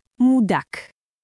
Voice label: Russian, russian phrases insults; ロシア語のネガティブなフレーズ

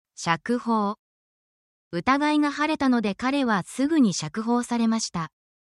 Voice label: justice law in japanese

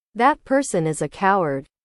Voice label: english

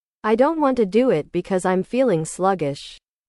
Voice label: english

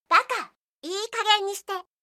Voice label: anime, Irritated & Angry Phrases from Anime Girls

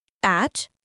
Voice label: Nepali, basic phrases

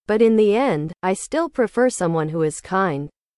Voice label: english

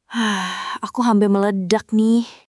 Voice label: indonesian phrases

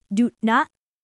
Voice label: Thai, basic phrases; タイ旅行や学習に役立つ！カタカナ発音で始めるタイ語基本100フレーズ集

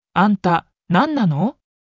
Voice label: anime

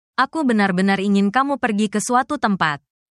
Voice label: indonesian phrases

